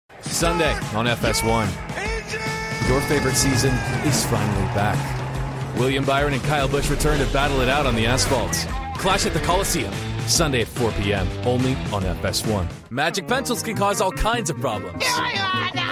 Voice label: promo